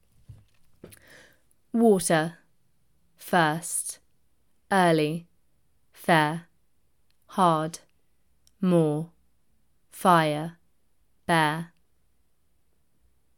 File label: Brit Water to Bear, british english